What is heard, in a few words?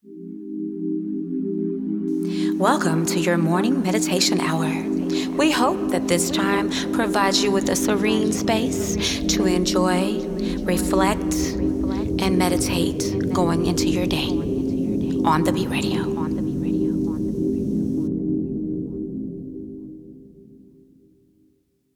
Morning Meditation